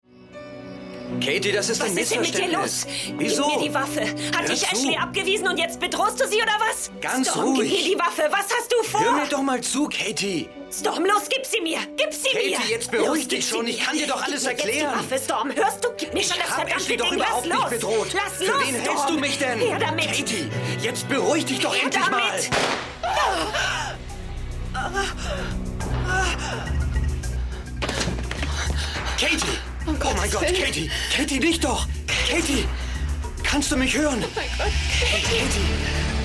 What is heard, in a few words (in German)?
synchron mord laut; LOFT VOICES